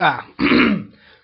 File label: ah mm hmm, male; MALE MISC